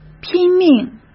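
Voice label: "拼命", Mandarin Chinese; chinese flashcards; hsk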